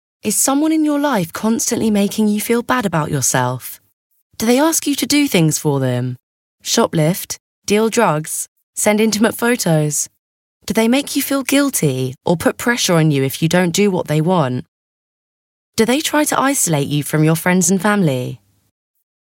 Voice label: teenage